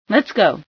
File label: lets go, female sci